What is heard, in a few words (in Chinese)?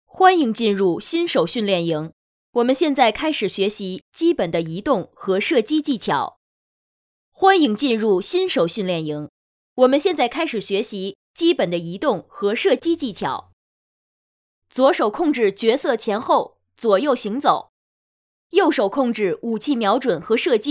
女 游戏指引; 超能战士游戏指引